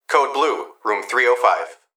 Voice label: Emergency Human Young Male Voice Hospital Calls Code Blue Room 305; emergency effects